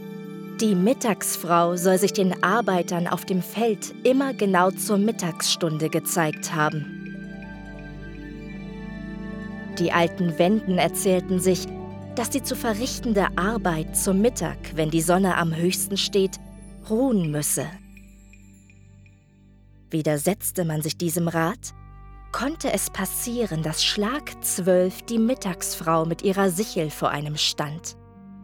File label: German others